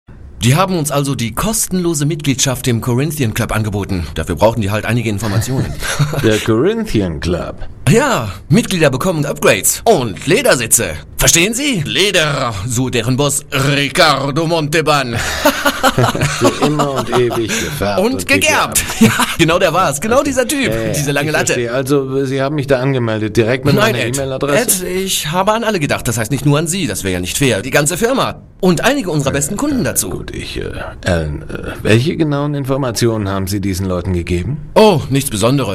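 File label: German, Corporate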